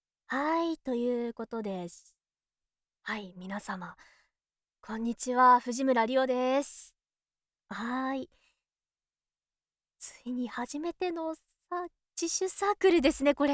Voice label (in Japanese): フリートーク